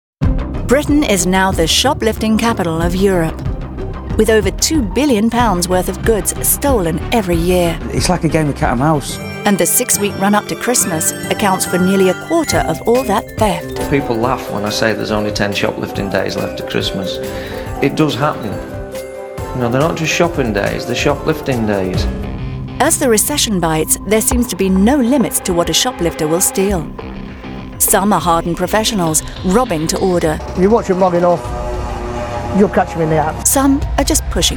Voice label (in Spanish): USA Femenino